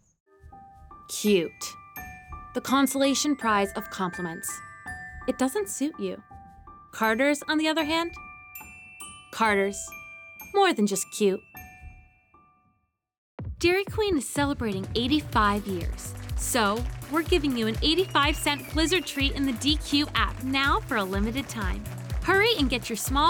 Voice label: Voice Over